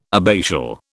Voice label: "abbatial", British